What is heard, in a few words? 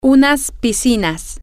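Spanish, female; some Swimmig Pools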